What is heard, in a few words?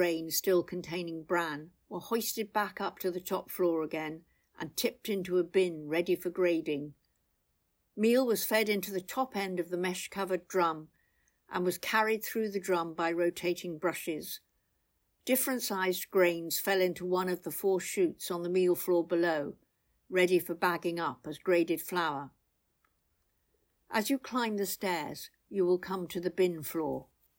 Mill English, Carew tidal mill audio tour; carew castle